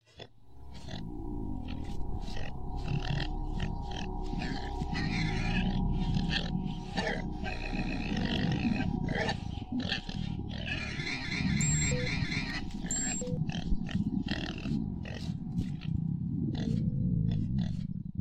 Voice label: hungry man; roomeyes; 1 MISSING FRIEND